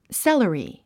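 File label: "celery", English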